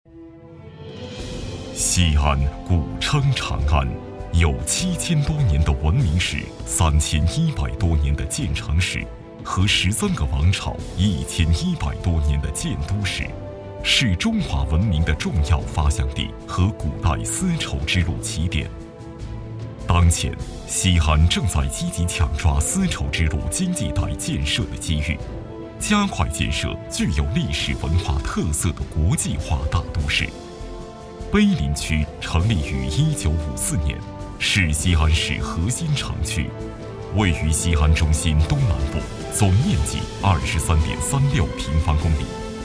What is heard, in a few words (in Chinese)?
男,宣传片; 西安宣传